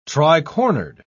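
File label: "tricornered", British English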